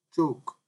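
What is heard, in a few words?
"choke", Nigerian Pidgin, male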